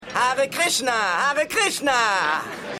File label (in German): Hare Krishna Typ, Stimmproben; Seinfeld